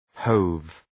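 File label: "hove", English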